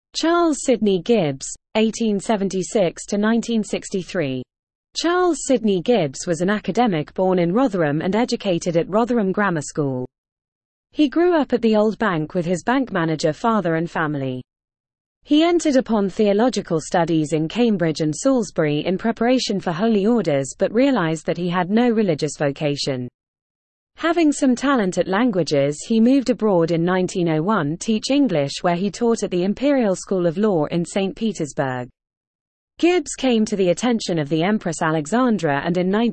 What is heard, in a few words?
Female; Charles Sydney Gibbes